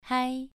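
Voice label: "hai", Mandarin Chinese, second tone, pronunciation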